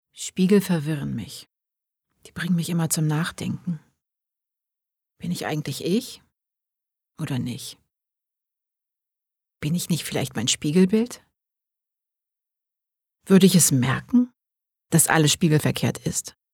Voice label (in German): Innerer Monolog